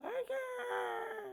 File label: Pig Chef, Voices